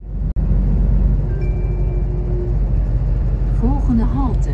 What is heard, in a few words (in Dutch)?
bus omroep